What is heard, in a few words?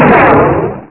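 "out", English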